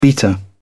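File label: "beater", British English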